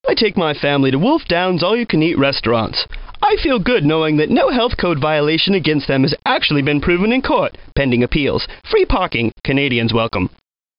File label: Commercial; SimCopter; Wolf Downs All-You-Can-Eat Restaurant